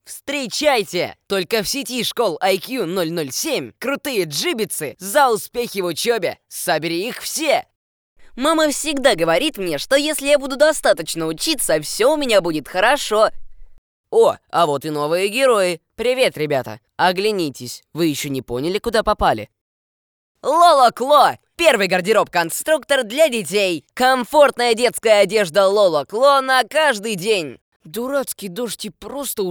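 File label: 12 years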